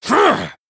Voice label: Male Night Elf Attack